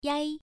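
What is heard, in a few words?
"yai1", Chinese pronunciation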